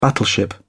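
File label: "battleship", British English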